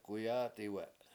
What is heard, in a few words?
"k7uyAAtiwE7", washo; swim upstream